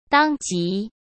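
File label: "当即", Mandarin Chinese; chinese-flashcards; hsk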